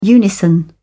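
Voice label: "unison", British English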